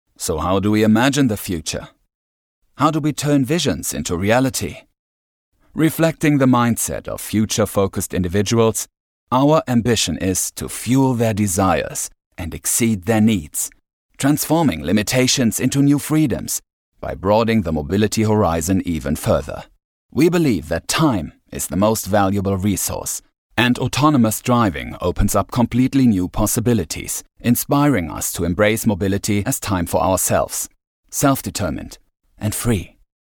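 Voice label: Imagefilm english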